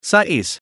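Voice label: "sais" Malay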